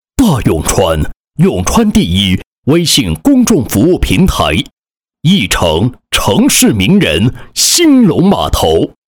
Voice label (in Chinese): 男 广告 美食宣传; 永川微信号美食宣传